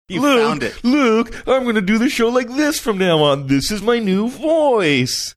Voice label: saying im gonna do show like this from now on funny